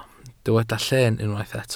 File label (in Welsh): "llen", Welsh